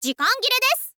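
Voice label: "zikangire", Japanese, girl; voice; info girl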